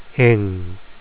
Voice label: ang, phonics